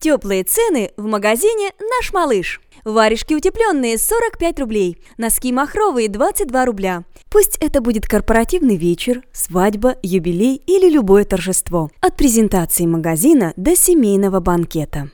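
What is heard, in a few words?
Russian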